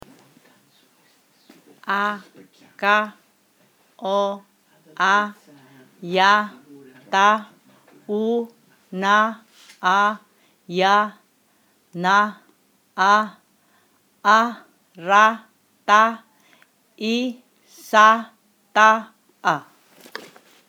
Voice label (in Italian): Fillippine